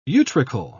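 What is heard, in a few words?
"utricle", British English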